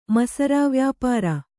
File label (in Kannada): "ಮಸರಾವ್ಯಾಪಾರ", Kannada